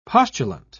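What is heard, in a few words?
"postulant", British English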